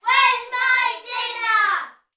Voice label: human group children x6 uk aged 3 to 8 shout where is my dinner